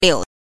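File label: "liu", Mandarin Chinese pinyin, neutral tone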